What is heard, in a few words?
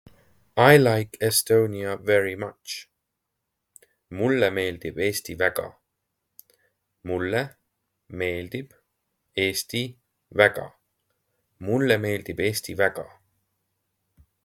I like Estonia very much, Estonian phrasebook; the talking estonian phrasebook